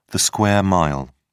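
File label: "square mile", British English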